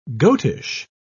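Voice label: "goatish", British English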